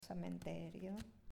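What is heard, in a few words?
"Cimitero", Italian, female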